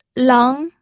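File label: "leng", second tone, pinyin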